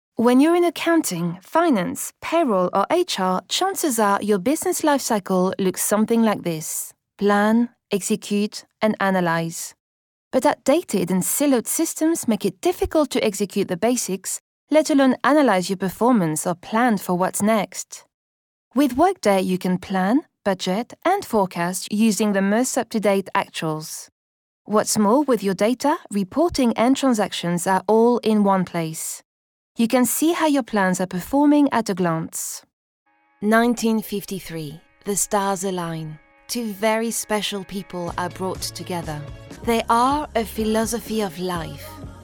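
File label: Corporate English accented; GoLocalise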